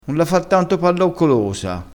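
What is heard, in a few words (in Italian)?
"palloccolosa", dialettale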